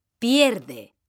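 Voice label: "pierde", Spanish, female; mekanta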